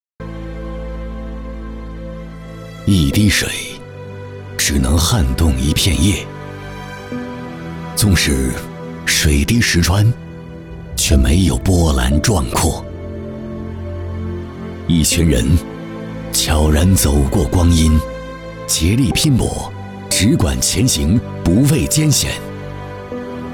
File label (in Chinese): 男 宣传 磁性 大气 电网